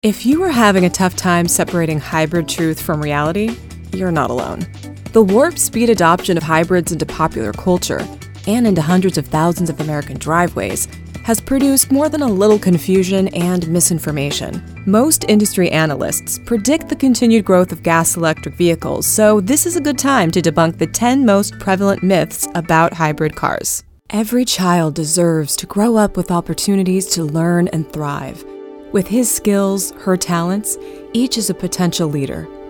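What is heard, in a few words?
US English, Kommentar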